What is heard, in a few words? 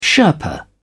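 "sherpa", British English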